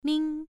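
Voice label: "ming", tone 1, pronunciation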